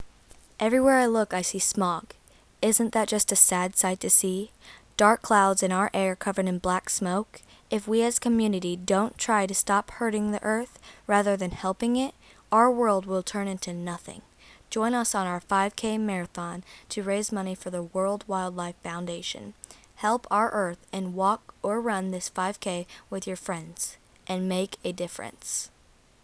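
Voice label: radio comercial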